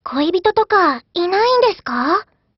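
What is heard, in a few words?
DIALOGUE, Japanese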